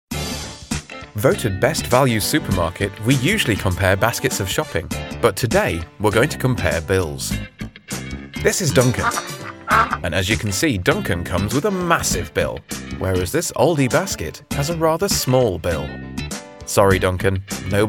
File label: commercial reel